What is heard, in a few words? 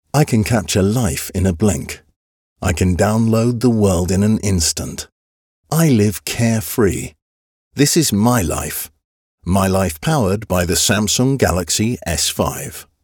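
American English, W Fremdtake, Dynamisch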